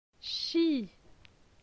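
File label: "Chi", French; phonetique; la syllabe i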